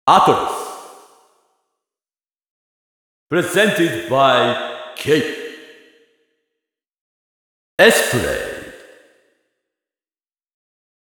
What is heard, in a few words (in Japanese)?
ボイス システムボイス 男性 ＆ 女性